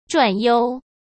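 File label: "转悠", Mandarin Chinese; hsk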